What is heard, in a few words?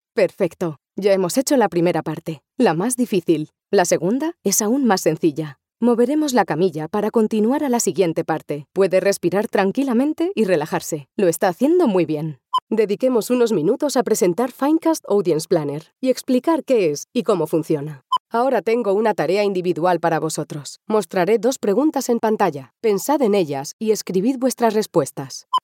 spanish voice elearning